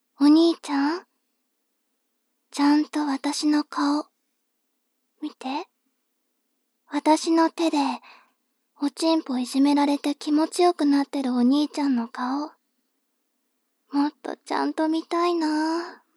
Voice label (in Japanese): 妹 台詞